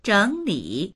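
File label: "zheng3li3", Mandarin Chinese; zhěnglǐ; 整理; 整理する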